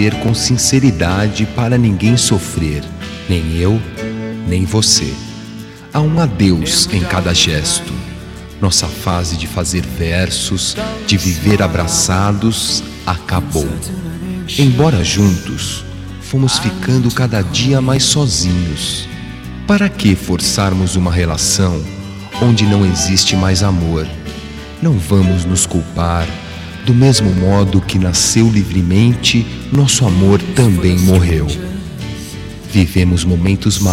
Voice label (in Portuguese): Telemensagem Fim de Romance, Masc; duBroncas